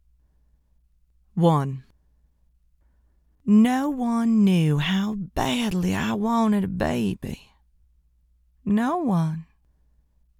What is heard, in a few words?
Female Horror Monologue